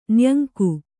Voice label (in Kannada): "ನಾಯಂಕ", Kannada